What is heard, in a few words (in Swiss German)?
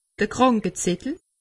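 "krankezettel", Alsatian; medecine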